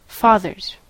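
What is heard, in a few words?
"father's", American English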